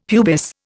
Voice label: "pubis", Indian; Dictionary